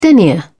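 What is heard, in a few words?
"denier", British English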